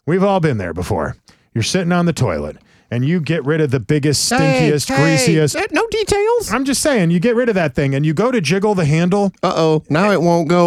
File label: RADIO AD; nicks plumbing radio ads